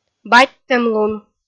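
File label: "батьтӧмлун", Komi-Zyrian, female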